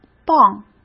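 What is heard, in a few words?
"棒", Mandarin Chinese; hsk; chinese-flashcards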